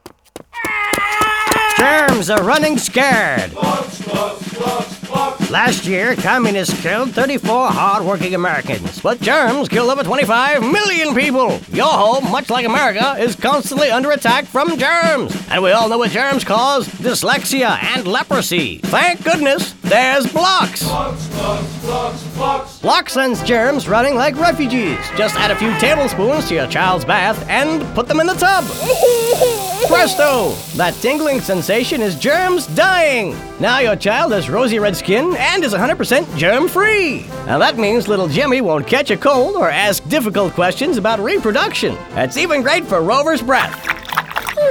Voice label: Radio Commercial; Blox